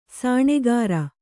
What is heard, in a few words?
"ಸೋನಗಾರ", Kannada